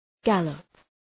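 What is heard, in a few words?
"gallop", English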